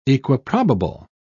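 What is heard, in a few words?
"equiprobable", British English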